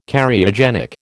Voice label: "Cariogenic", American